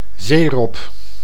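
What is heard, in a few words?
"zeerob", Dutch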